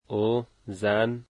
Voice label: dari she

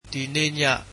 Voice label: "tonight", Burmese

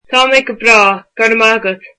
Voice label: Im good thank you, irish

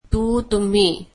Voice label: marathi you

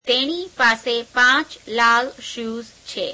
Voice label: gujarati she has five red shoes

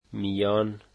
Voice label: between, dari

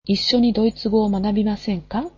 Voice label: japanese can we learn German together

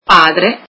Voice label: father, italian

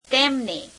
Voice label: gujarati him; તેમને [teemene]; Object Pronouns

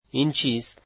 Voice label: dari What is this

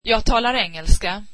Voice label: swedish I speak English

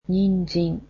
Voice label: "carrots", japanese

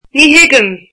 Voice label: I dont understand, irish

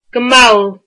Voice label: "slowly", Irish

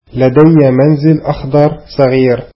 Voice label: arabic I have a small green house

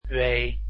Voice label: "w", dutch alphabet

